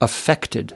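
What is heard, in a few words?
"affected", US English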